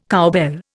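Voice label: "cowbell", Indian English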